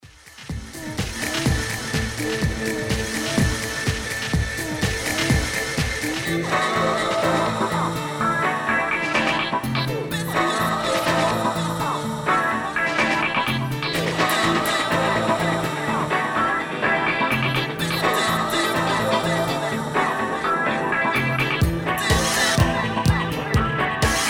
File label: female promo